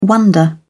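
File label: "wonder", British English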